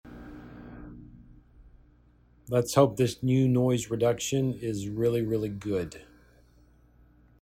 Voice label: old noise reduction

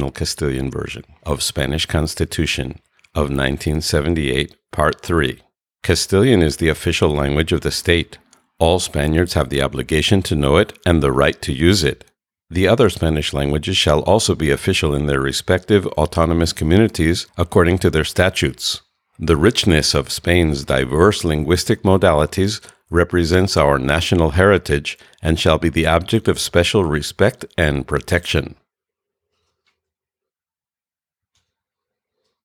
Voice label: US-2x2 test recording

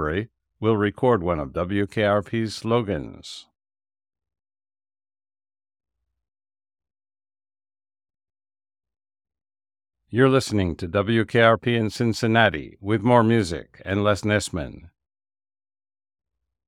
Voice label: K688 Studio Sound

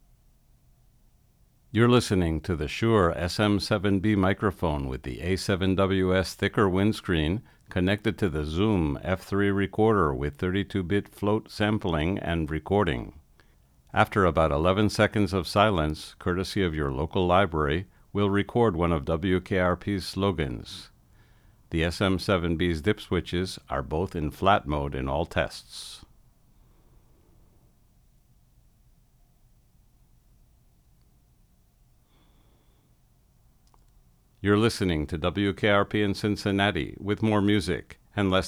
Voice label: SM7B F3 nivelado

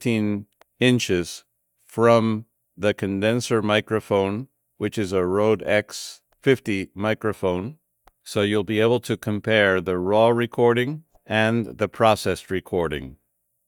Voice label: Noisy and echo Hushed; Hushed 100

